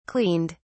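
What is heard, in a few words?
"cleaned", English, uk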